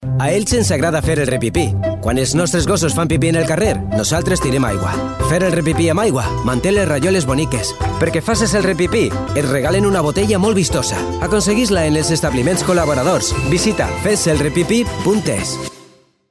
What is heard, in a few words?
spanish male valenciano